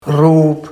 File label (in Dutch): "roep", Barghs